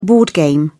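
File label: "board game", British English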